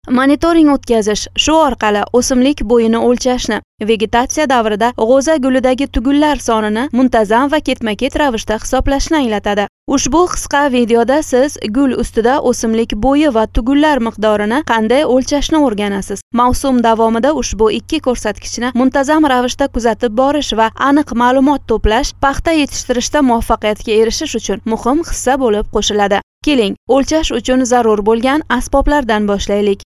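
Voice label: Uzbek female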